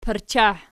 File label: "parchah", Archi